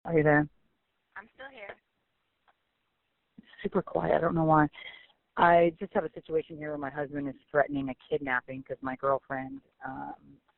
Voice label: 911 CALL; KIM ZOLCIAK